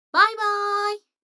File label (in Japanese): 女の子台詞